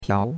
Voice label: "piao2", chinois; Cours-de-Chinois

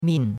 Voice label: "min4", chinois; Cours de Chinois

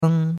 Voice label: "eng1", chinois; Cours-de-Chinois

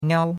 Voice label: "niao1", chinois; Cours-de-Chinois

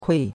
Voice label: "kui4", chinois; Cours-de-Chinois

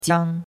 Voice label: "jiang1", chinois; Cours de Chinois

